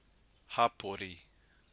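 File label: "hapori", Maori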